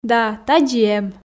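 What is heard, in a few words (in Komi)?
"да тадз и эм", Komi, female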